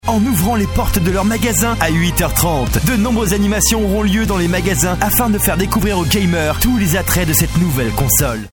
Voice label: Français Publicité; casting de voix-off; taurus-studio

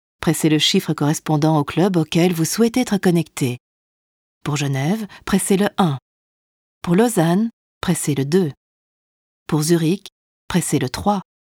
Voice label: Francais; casting de voix-off; taurus-studio

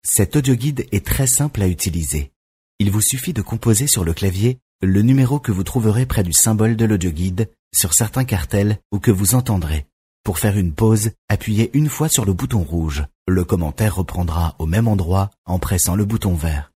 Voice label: Français; casting de voix-off; taurus-studio

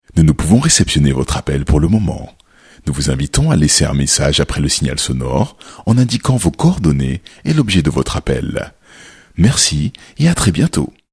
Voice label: Français (non-maternel); casting de voix-off; taurus-studio

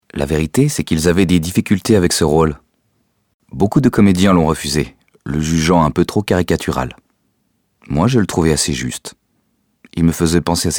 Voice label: Français; casting de voix-off; taurus-studio

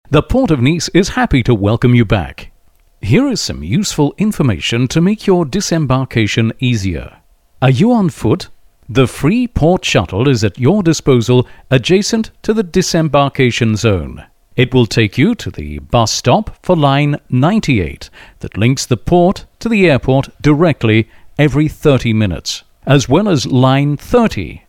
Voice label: Anglais; casting de voix-off; taurus-studio

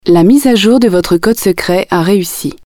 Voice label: court FR, voix-off; Français court; casting de voix-off; taurus-studio

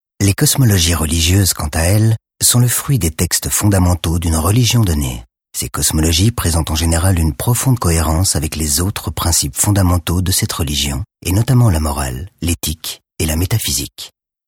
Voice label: Français pour documentaire; casting de voix-off; taurus-studio

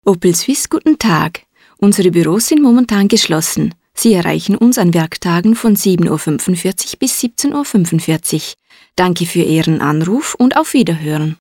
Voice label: long DE, voix-off; casting de voix-off; Allemand; taurus-studio

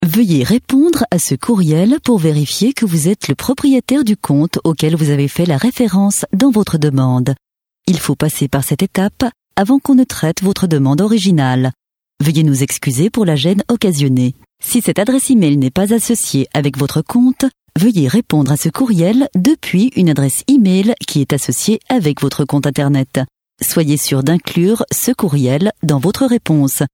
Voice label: voix off, prompts repondeurs; Français; casting de voix-off; taurus-studio

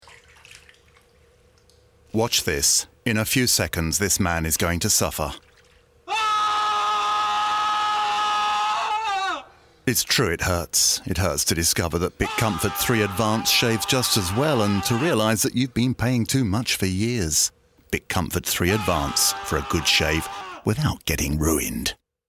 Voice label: Anglais; casting de voix-off; taurus-studio

